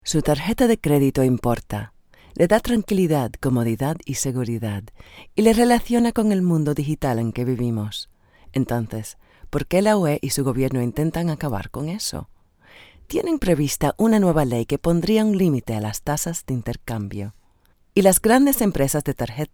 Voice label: Narr; EU credit